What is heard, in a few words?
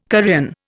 "Korean", Indian